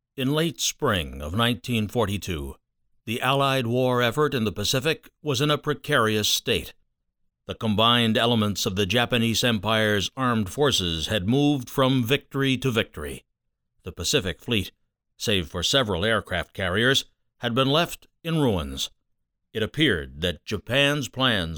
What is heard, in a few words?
Cable TV Documentary